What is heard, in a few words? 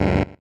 Voices Electronic Lock Failure